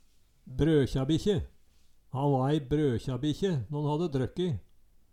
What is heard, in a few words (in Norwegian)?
brokjabikkje, Numedalsmål